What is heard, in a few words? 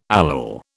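"allele", British English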